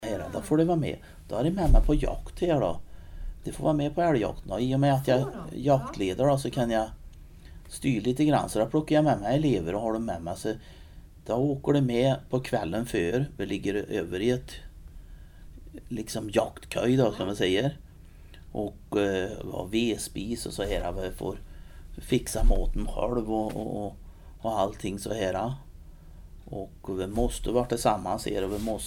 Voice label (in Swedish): Sa kallad NORMinformant Torsby, dialekter